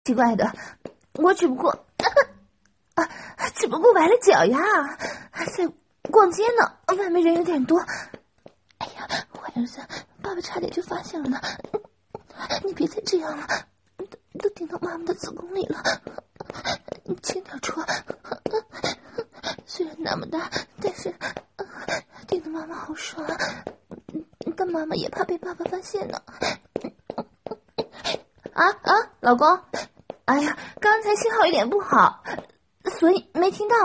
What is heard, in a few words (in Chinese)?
ASMR 中文音声; 颅内高潮NTR